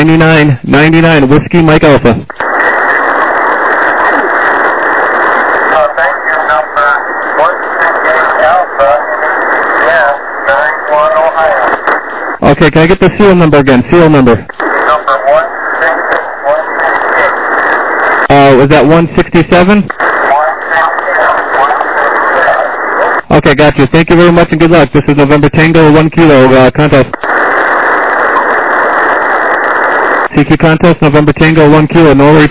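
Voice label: lower sideband 7MHz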